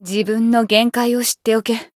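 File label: heal, Japanese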